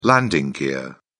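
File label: "landing gear", British English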